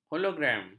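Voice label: "hologram", Malay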